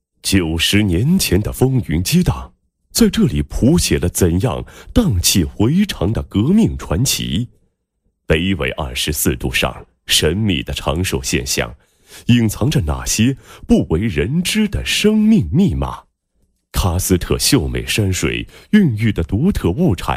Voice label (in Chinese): 男 药品品牌 火麻系列产品介绍宣传; 比寿堂